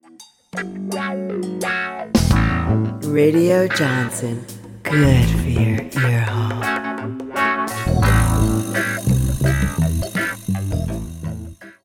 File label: Radio